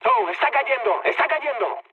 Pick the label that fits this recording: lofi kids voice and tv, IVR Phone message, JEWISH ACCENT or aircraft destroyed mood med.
aircraft destroyed mood med